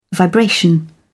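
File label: "vibration", British English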